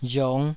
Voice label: "riong5", taiwanese